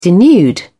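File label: "denude", British English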